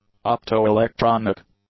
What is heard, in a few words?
"opto-electronic", English; adjectives